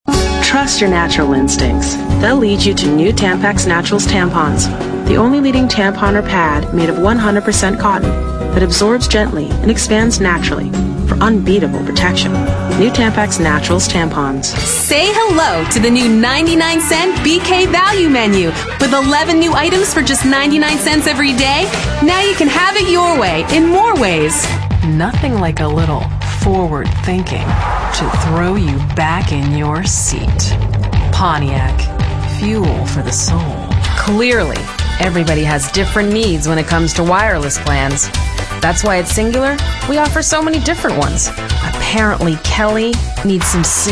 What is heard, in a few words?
female, late 20s mid 30s contemp